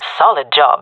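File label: compliment, female; vo; agents; jungle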